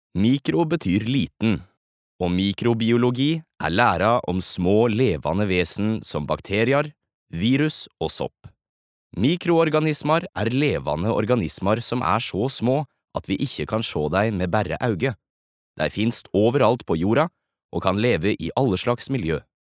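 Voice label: Norwegian nynorsk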